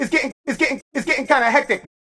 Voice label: vox spoken kinda hectic